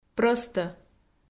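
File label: "прӧстӧ", Komi, female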